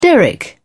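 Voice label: "derrick", British English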